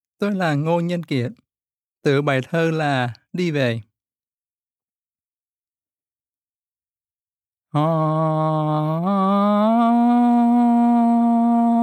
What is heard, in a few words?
Vietnamese; Poetry-on-Buses